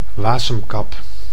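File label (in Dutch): "wasemkap", Dutch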